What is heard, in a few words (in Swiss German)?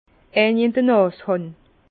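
"nashan", Alsace Bas Rhin; vin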